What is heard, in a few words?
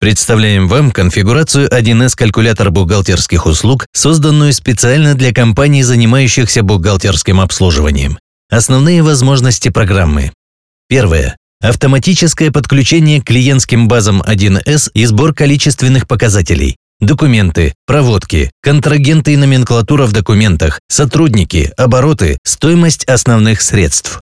russian e-learning